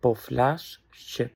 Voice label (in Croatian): albanski; POP UP Zagreb